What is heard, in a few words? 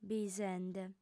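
"bisende", female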